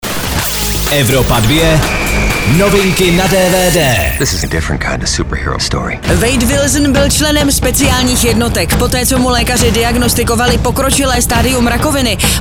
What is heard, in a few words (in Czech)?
DVD NEWS; evropa2; Deadpool